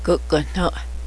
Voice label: woodpecker, Cheyenne

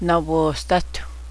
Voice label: my belt, cheyenne

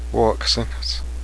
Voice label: turn the light on, Cheyenne language; Vó'ho'kâsenôtse!

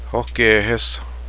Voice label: mouse, cheyenne language

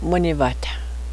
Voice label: newbird, Cheyenne

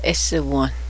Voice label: buffalo female, cheyenne language

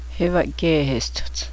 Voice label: scarf, cheyenne

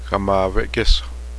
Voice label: sparrow, Cheyenne

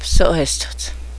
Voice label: breechcloth, cheyenne; nêhpêsó'hestôtse; loincloth / breechcloth

